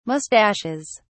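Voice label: "moustaches", British English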